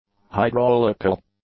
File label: "hydraulical", English; adjectives